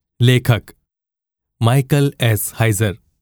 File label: name, gujarati